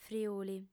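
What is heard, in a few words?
"friuli", Sardinian, female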